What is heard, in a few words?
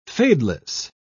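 "fadeless", British English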